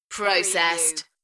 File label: "Processed", UK female; beanstalk audio